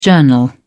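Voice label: "journal", British English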